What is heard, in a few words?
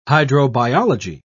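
"hydrobiology", British English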